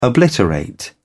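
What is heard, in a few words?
"obliterate", British English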